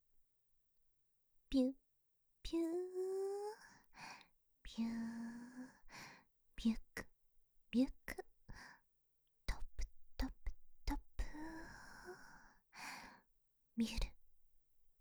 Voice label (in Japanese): ループ正面 ぴゅっぴゅ, ず～っと、ぴゅっぴゅって囁かれたいんですよね